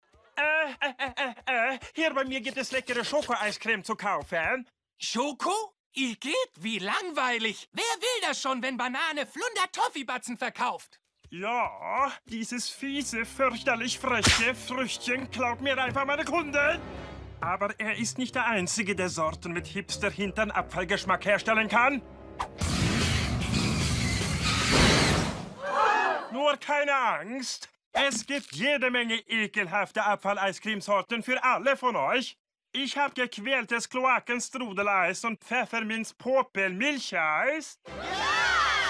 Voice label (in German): synchron cartoon; viking ice con; solvik